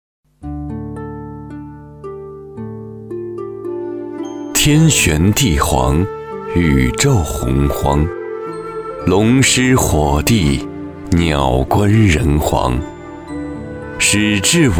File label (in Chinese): 男 纪录片; 中华文明